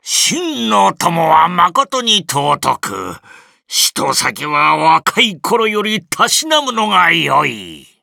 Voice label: victory, jp